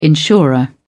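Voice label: "insurer", British English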